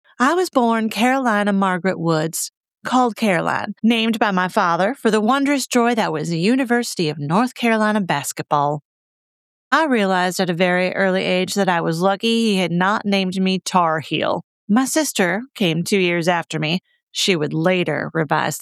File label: Romance Southern Accent Narration